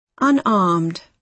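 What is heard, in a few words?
"unarmed", British English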